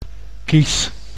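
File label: "kies", Dutch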